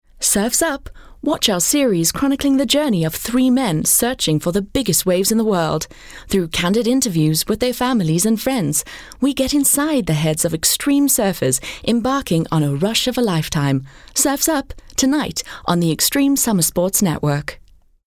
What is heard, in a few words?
English Promo